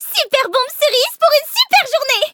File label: levelup, fr; cookie run